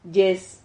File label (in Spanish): "llez", Silabas